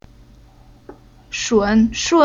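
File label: "Shun", chinese syllable; Уроки фонетического блока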